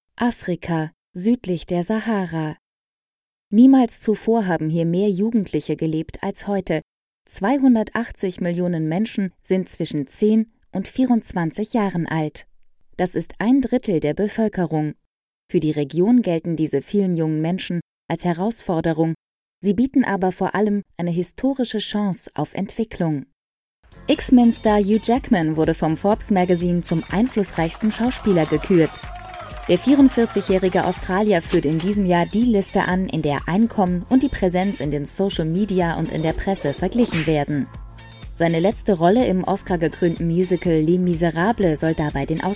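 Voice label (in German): documentary, deutsch frauen; sprecher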